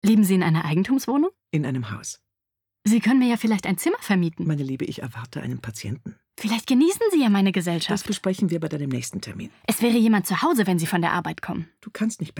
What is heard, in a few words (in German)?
dialog